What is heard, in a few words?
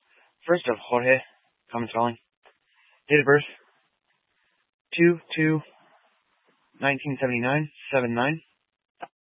Troop 2A Dispatch, scanner audio recording